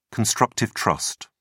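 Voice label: "constructive trust", English, British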